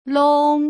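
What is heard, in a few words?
"long", Mandarin Chinese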